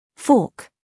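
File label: "scandal", American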